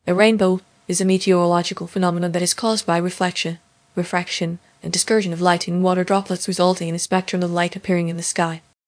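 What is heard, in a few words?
speaker, American English; piper-voices; en US; libritts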